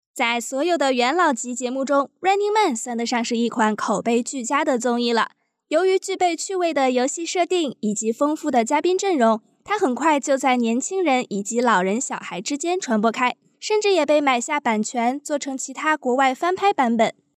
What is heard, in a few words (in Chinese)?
评论